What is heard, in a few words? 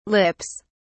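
"lips", British English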